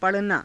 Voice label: "pa_ranaa", Hindi; पड़ना; [自動]落ちる